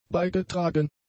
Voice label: "beigetragen", German; adjectives